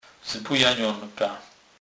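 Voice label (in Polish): "zbojenonka", Kurpie dialect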